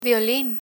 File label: "violin", Spanish